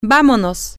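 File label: we Go, Spanish, female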